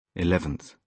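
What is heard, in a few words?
"eleventh", British English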